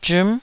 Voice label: "zhim9", Taiwanese syllable